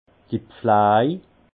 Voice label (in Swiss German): "pflaj", alsace; vin; soins